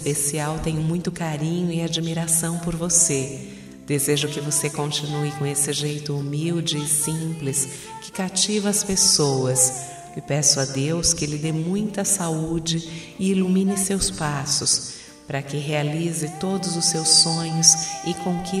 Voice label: ANIV ATRASADO GENRO, telemensagem aniversario atrasado

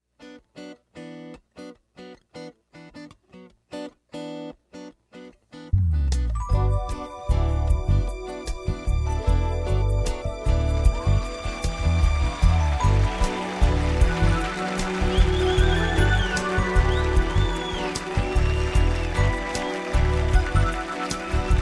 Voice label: ROMANTICA PARA ESPOSO, telemensagem

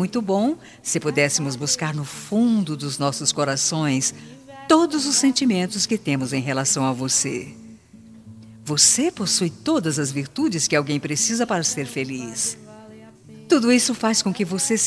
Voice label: ANIV PRIMA PL FEM, Telemensagem

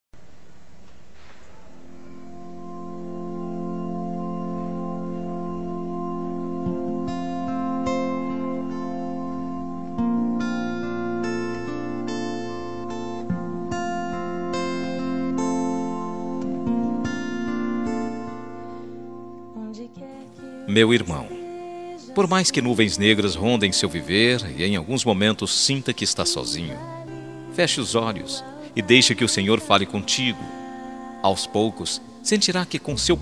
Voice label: ANIV IRMAO EVANG MASC, telemensagem evangelica aniversario irmao

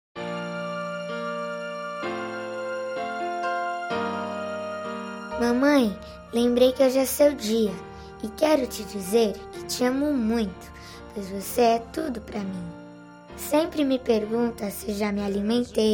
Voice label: Mamae eu te amo filha crianca F, telemensagem dia das maes infantil

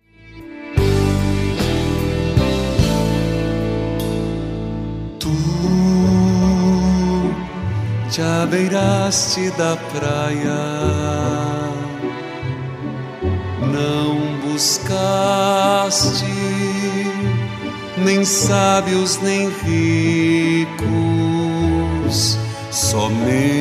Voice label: ANIV RELIGIOSO FEM, Telemensagem; telemensagem aniversario religioso

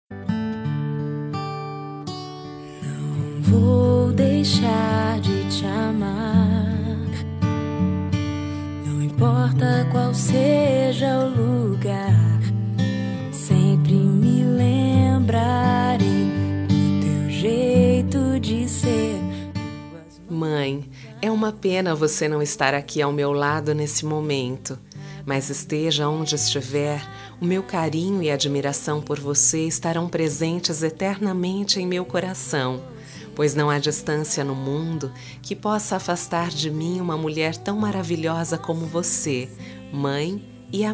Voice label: DIA DAS MAES DISTANTE F, telemensagem dia das maes distante

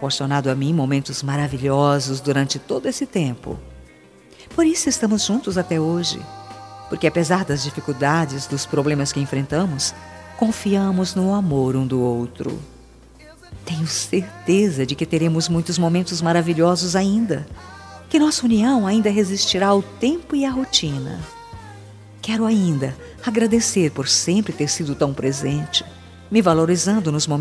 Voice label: ANIVERSARIO PARA ESPOSO, telemensagem

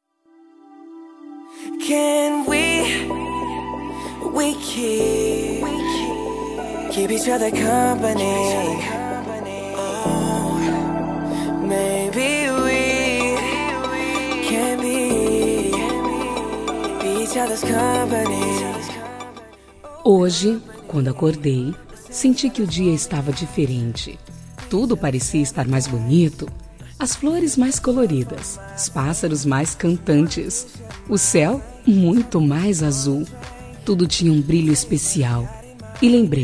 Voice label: Aniversario Namoro Feminino, telemensagem

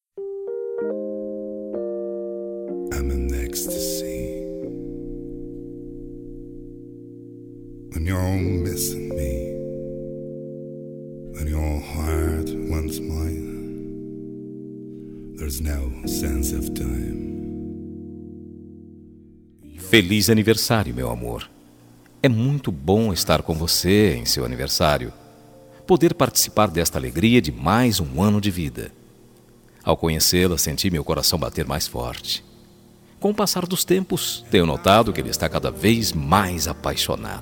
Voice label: aniversario PARA QUEM GOSTA MASC, telemensagem aniversario diversos

